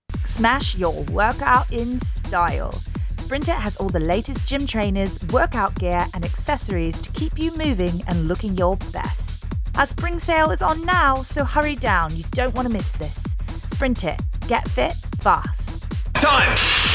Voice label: UK neutral; Sprintfit